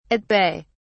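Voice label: "at bay", British English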